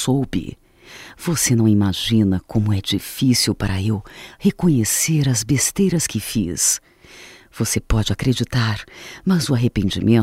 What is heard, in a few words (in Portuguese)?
Reconciliação Feminino, Só Voz, MOMENTOS DE AMOR; Telemensagens